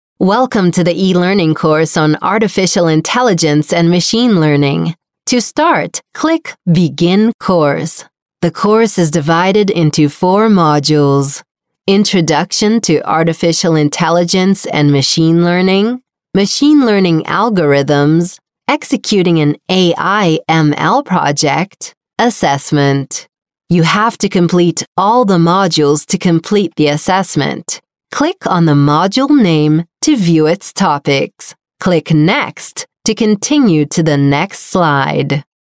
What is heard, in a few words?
Brazilian Portuguese Tutorial Elearning, Brazilian Portuguese Female